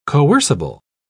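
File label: "coercible", English, British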